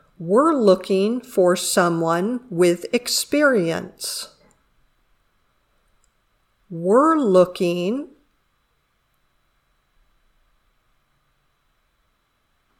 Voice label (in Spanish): Dictado